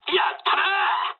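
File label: player killed enemy mood med, speech japanese; War Thunder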